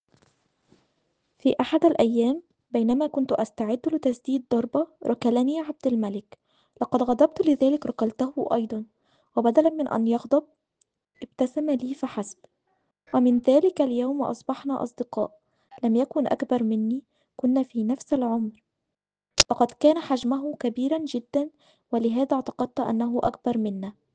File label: Arabic